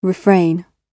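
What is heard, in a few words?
"refrain", British English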